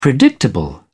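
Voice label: "predictable", English, British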